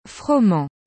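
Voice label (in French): "froment", French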